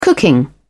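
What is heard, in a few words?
"cooking", British English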